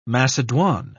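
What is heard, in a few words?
"macedoine", British English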